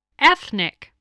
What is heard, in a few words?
"ethnic", spelling